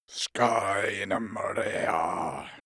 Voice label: male curse evil